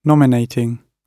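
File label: "nominating", British English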